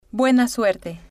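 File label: buena Suerte, female, spanish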